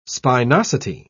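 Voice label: "spinosity", British English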